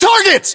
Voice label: threat infantry multiple, battlechatter, English, US